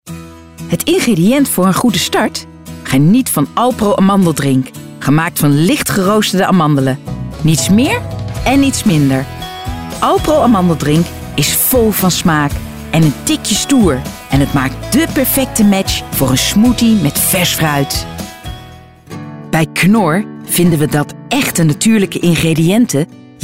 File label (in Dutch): Voice-over, commercial, bedrijfsfilm